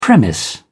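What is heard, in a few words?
"premise", British English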